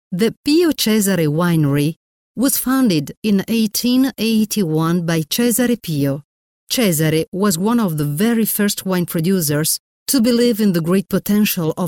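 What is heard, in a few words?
Italian English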